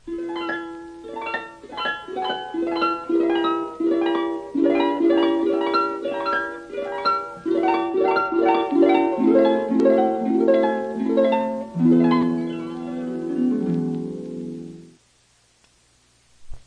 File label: words of life quechua bolivia